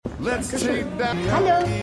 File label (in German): Mann Danke Schoen Frau Hallo